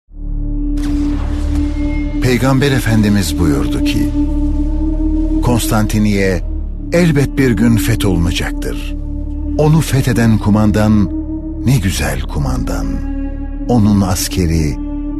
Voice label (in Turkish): tanitim